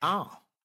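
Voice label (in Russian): мужской голос согласие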